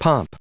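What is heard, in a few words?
"pomp", US English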